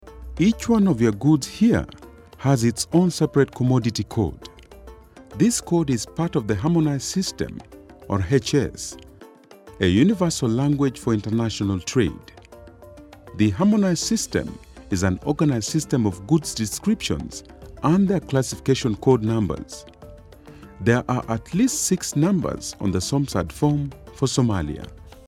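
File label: Afrikaans, English, male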